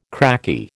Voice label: "cracky", American

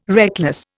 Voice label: "reckless", Indian

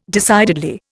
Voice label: "decidedly", Indian

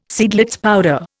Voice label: "Seidlitz powder", Indian

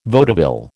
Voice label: "vaudeville", American

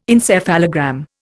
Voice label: "encephalogram", Indian

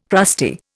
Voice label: "crusty", Indian

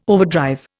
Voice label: "overdrive", Indian

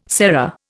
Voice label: "sera", Indian

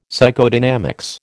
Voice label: "Psychodynamics", American

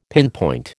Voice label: "pinpoint", American

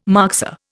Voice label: "moxa", Indian

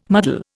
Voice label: "muddle", Indian

